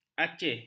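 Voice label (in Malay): "acih", Malay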